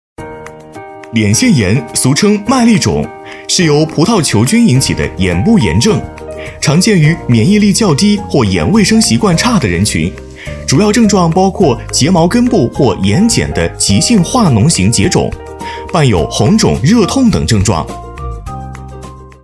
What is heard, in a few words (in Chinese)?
男,MG,年轻活力,医疗; 飞碟说MG